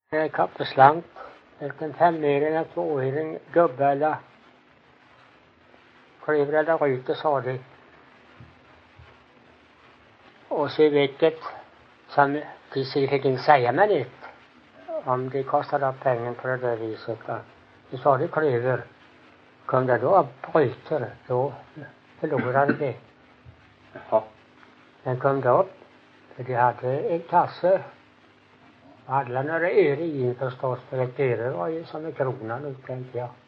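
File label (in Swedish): Ingatorp Småland, dialekter; Folkminnen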